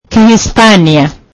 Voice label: "Spanish", swahili

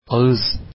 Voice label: mouth, Turkish

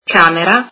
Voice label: "room", italian

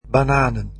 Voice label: "bananas", dutch

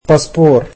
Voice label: "passport", egyptian

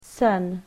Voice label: "son", English

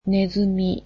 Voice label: mouse, japanese

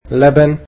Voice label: "milk", egyptian

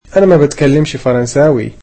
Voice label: I dont speak French, egyptian

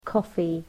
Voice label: "coffee", English

